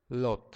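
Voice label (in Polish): "lot", Polish; multimedia glossaries; transport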